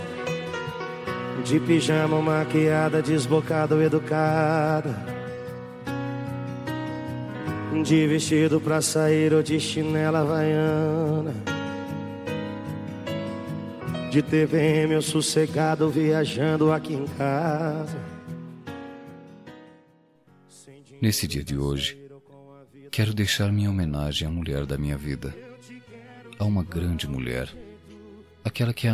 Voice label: voz masculina; dia das maes romantica para esposa

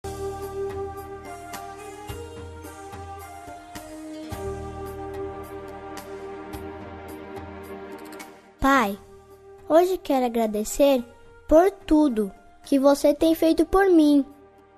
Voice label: masc infantil, voz infantil masculina; Dia dos Pais

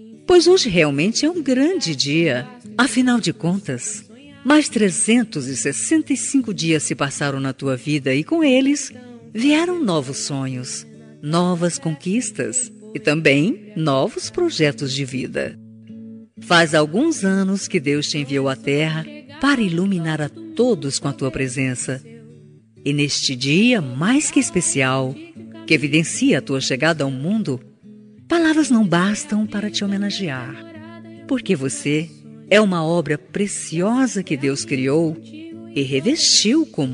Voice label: prima fem

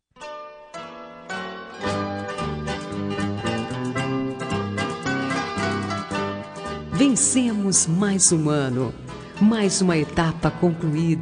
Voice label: ano novo linda, Ano Novo – Pessoa Especial – Voz Feminina; Telemensagens

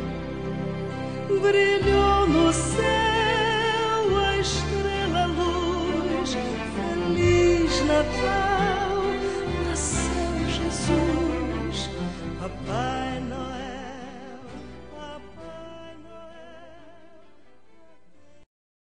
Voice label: natal paquera, Natal Pessoa Especial – Voz Masculina

